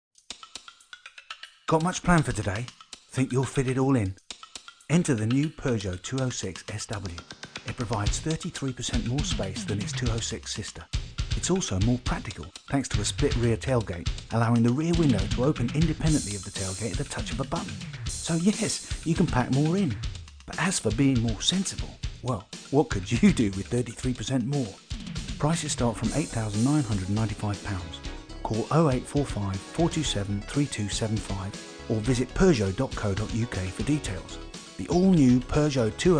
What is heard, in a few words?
Announcer, Young cockey, Mild Cockney; PEUGEOT 206 SW